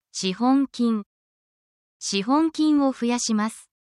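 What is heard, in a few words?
Japanese word, essential Japanese phrases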